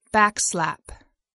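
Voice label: "backslap", English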